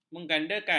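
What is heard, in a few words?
"menggandakan", Malay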